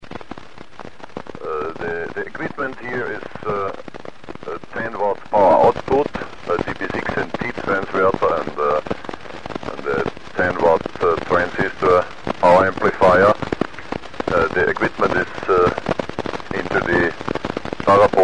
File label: fm